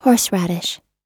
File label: "horseradish", us